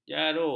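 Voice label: "jaro", Malay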